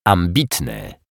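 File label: "ambitny", Polish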